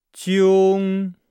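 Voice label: "jiong", Chinese; Nihao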